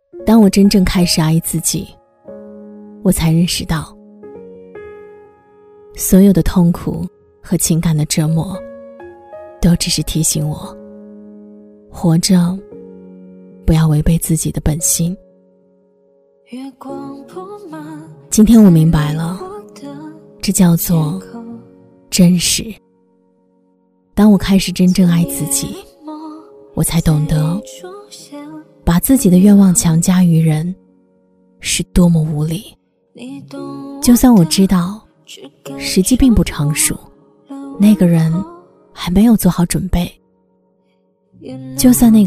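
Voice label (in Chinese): 女-内心独白【伤感文艺】; 当我开始真正爱自己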